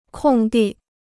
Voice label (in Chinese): "空地", Chinese